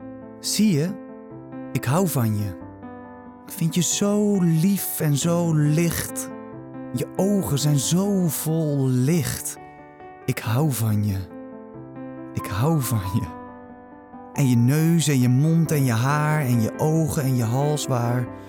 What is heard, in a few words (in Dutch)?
frans gedicht